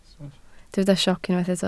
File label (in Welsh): "sioc" Welsh